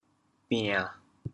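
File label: "bian1", Teochew, chaoyang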